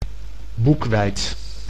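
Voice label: "boekweit", Dutch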